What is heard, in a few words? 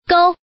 Woman